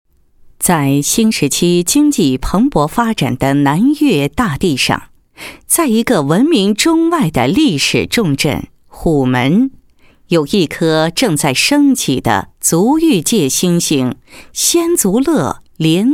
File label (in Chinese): 女, 宣传片 连锁沐足宣传片配音; 仙足乐